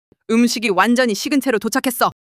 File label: anger